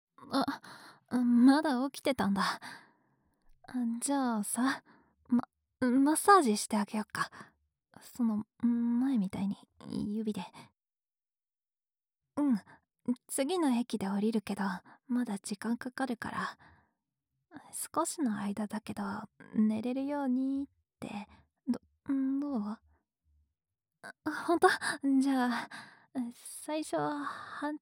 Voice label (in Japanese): 【左→右】マッサージ＆指耳かきパート【環境音なし 反転】, ASMR; 環境音なしver.